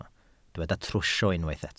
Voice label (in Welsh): "trwsio", Welsh